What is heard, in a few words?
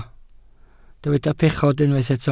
"pechod", Welsh